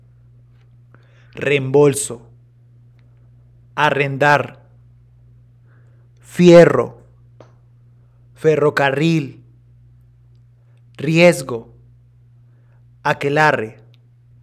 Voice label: R trill